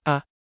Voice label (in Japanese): 曖昧母音の発音